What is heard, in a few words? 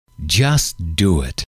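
just do it, male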